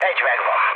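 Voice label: player killed enemy, mood low, Hungarian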